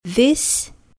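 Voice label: "this", English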